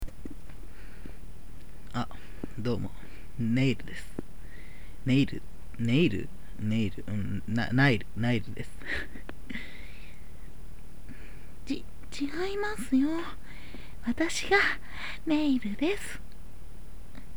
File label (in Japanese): 自己紹介（笑）